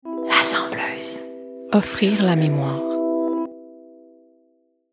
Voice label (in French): signature annonceur fr normatif promo sensuelle charmante medium jeune adulte